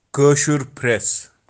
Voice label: "keashur phrass", Kashmiri